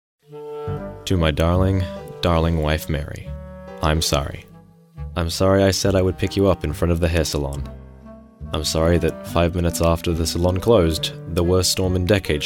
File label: Voice Over